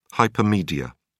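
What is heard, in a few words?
"hypermedia", British English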